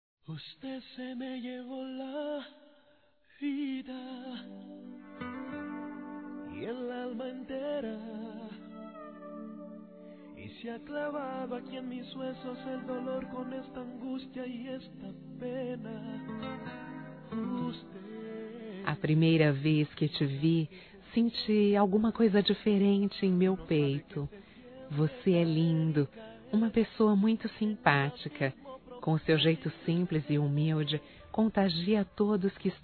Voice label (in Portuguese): QUERO TE CONHECER, fem; Telemensagens; Pedidos